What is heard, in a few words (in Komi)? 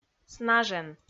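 "снажен", Komi, female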